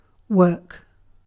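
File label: "work", British English; pronunciations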